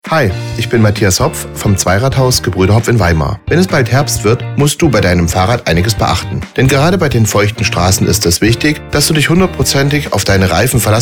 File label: Infomercial Spot; Teddy; Gebrüder Hopf